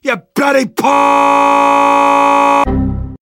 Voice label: rage sniper; missingteam

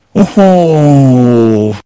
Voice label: negative vocalization; sniper

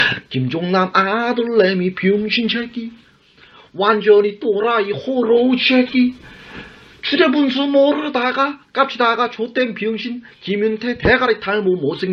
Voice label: scout cheers

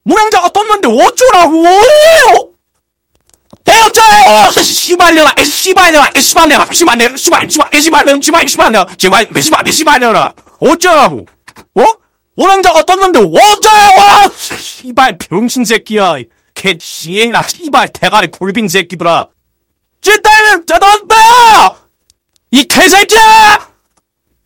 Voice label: scout cheers

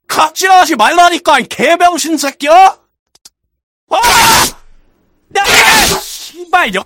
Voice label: scout jeers

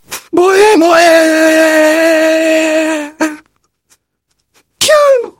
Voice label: engineer battlecry

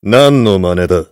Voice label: heavy negative vocalization

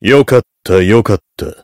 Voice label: heavy good job

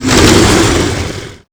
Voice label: threat; silent hill

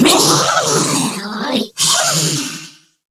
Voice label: threat; scarlet; slender

